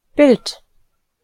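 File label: "Bild", German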